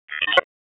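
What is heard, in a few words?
Dispatch 1, P25; WSO DISP; wake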